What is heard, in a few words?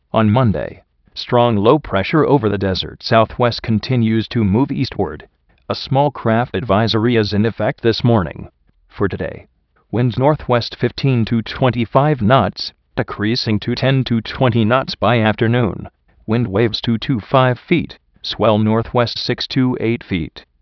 wxradio